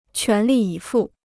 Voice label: "全力以赴", Chinese